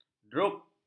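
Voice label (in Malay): "drup", Malay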